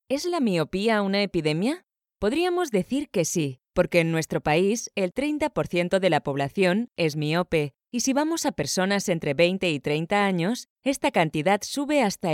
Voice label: Spanish Castilian